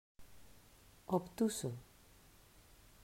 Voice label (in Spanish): pronunciación "Obtuso"